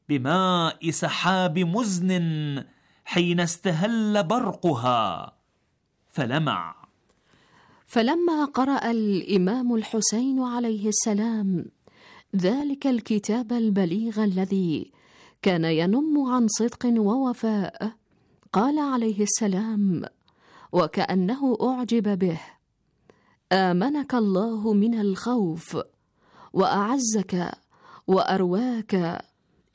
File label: Arabic